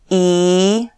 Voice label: y long, slovak

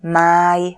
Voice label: "maj", Slovak; months; calendar

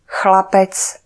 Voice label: "chlapec", Slovak; alphabet

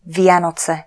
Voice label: "vianoce", Slovak; holidays; calendar

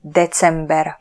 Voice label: "december", Slovak; months; calendar

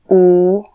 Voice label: u long, slovak

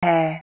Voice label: e long, slovak

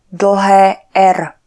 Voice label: "r" long, Slovak

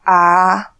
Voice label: "a" long, Slovak

